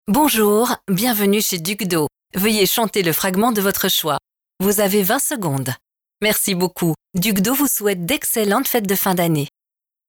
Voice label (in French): Voix francophone